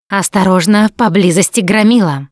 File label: heard charger, Left 4 Dead 2 russian, Survivor Voice Producer